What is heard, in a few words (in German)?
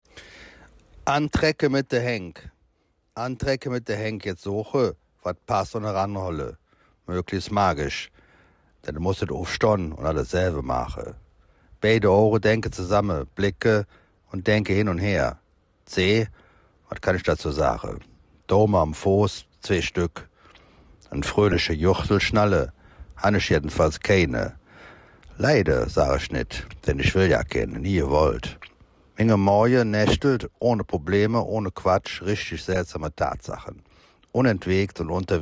Rheinlaendisch